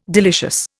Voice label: "delicious", Indian